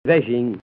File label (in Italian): "vexin", genovese; ve'ZiN/ (vicino)